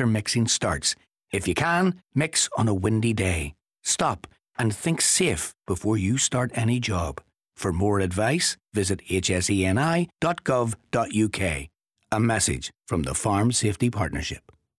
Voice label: radio slurry, radio adverts; stop and think safe radio adverts